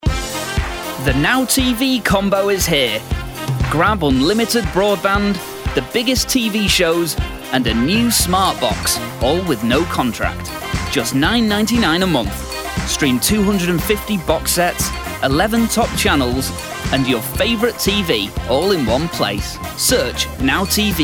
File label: Commercials